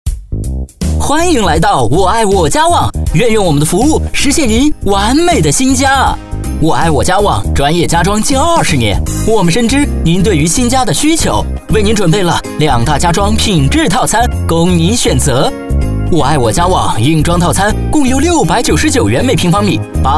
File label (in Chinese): 男 MG风格, 国语男声配音; 我爱我家网